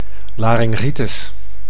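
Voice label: "laryngitis", Dutch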